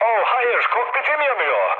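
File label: Turkish on fire, mood low, speech; War Thunder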